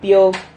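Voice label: "pio", Spanish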